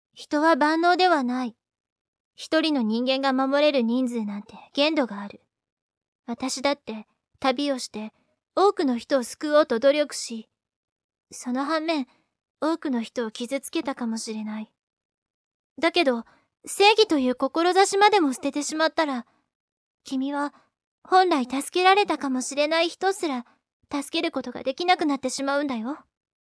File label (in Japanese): 女っぽく